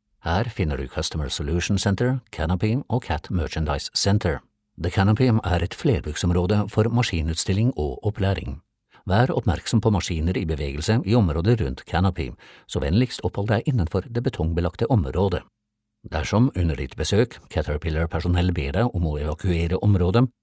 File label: norwegian voice over, norwegian male voice over; locutor, voice over